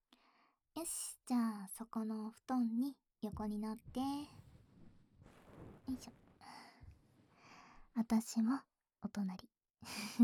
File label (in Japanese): ささやき読み聞かせ朗読, ASMR; 「ブレーメンの音楽師」